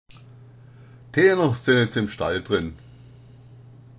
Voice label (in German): henna, schwaebisch